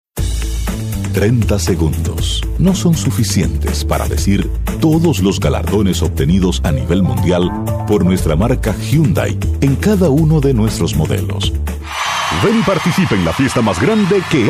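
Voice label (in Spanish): latam male commercial; voice demos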